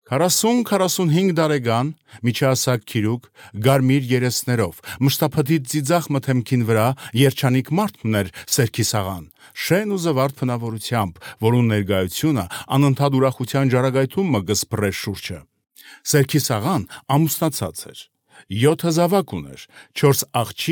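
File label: Armenian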